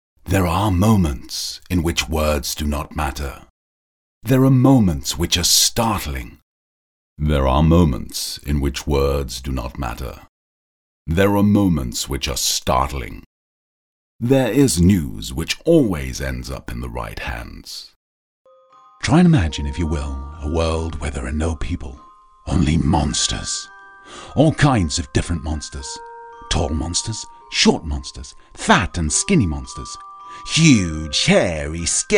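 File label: English male; lektoring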